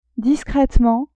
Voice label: "discretement", French; adverbs